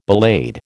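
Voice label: "ballade", American English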